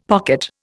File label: "pocket", Indian; Dictionary